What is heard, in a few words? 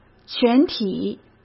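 "全体", Mandarin Chinese; chinese-flashcards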